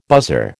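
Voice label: "buzzer", American English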